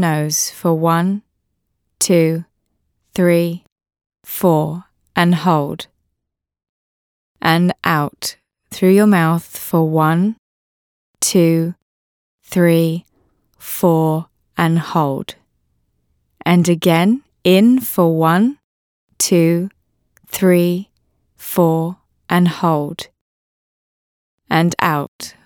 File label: Calm Breathing – Female voice – Brief version